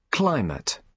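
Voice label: "climate", British English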